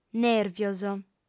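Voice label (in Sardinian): "nèrvios", female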